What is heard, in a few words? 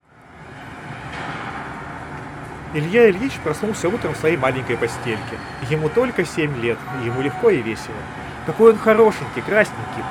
Recorder noise; Запись на профессиональный рекордер; soundpeats air5 pro